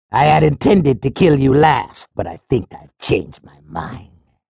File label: chatter negative; sorlag; respawn